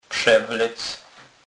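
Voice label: "przewlec", Polish, Kurpie dialect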